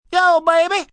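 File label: Yo Baby Male, Greetings, male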